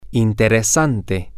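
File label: interesting, Spanish, male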